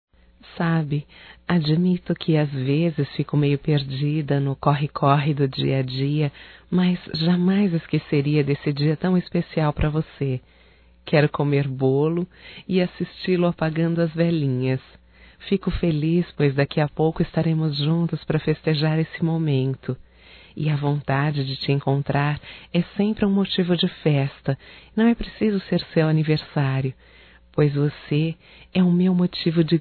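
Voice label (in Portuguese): romântico female, Telemensagens Aniversarios; Telemensagens 2017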